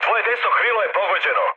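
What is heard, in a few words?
Serbian right wing mood med; War Thunder; speech srb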